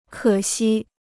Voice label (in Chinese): "可惜", Chinese